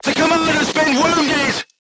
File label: voice message commander wounded, crew tanks portuguese